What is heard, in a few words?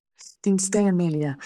Voice Acting, es